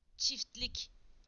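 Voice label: "farm", Turkish; PictureDictionary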